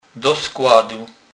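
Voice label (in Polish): "do skladu", Kurpie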